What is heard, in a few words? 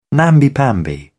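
"namby pamby", British English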